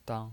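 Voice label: "dang", Mandarin Chinese pinyin voice, neutral tone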